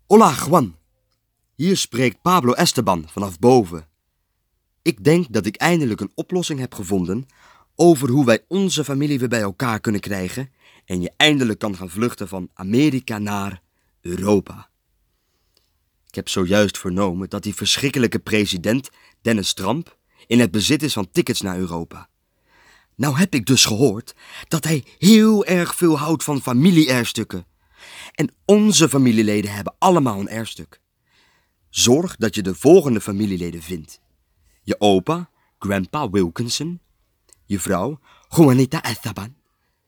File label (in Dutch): speluitleg kinderen